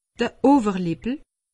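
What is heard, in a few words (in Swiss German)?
"overlippel", Bas-Rhin; medecine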